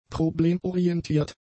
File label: "problemorientiert", German; adjectives